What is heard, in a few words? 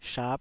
"shap5", taiwanese Syllables